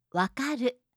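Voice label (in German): "wakaru", japanische Mädchennamen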